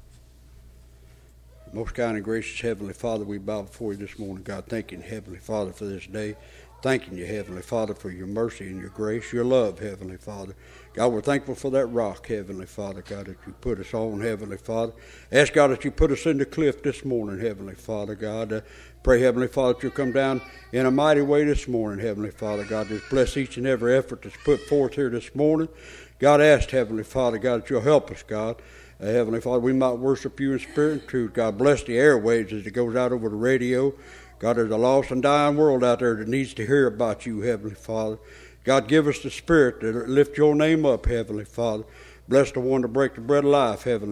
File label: Broadcast Prayer